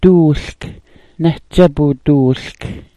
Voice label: "duulk", smalgyax

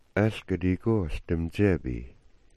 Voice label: "algadigo", smalgyax

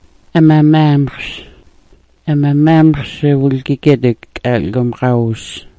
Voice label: "amamamxs", smalgyax

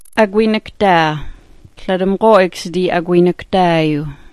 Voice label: "agwinikd'aa", smalgyax

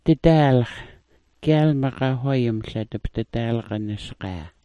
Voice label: "didaalx", smalgyax

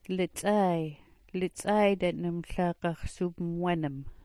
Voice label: "lits'aay", smalgyax

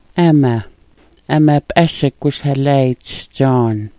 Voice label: "ama", smalgyax

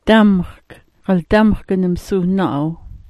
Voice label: "damxk", smalgyax

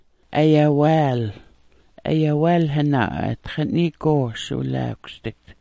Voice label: "ayawaal", smalgyax

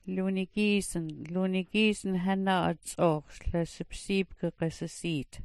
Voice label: "lunigyiisn", smalgyax

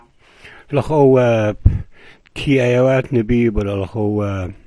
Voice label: "lax'oo waap", smalgyax

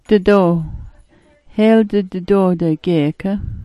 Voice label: "didoo", smalgyax

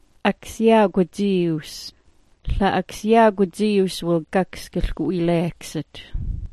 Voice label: "aksyagwadziiws", smalgyax

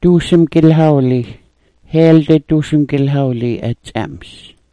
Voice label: "duusmgyilhawli", smalgyax

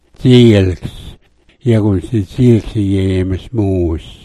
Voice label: "dziilks", smalgyax

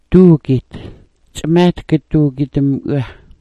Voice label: "duugit", smalgyax

